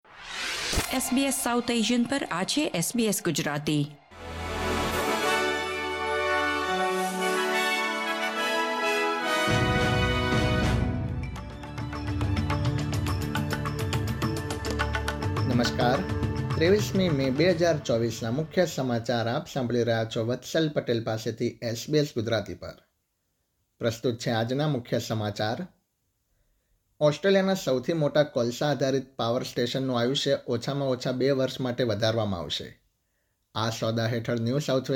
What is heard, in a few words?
gujarati news bulletin; sbs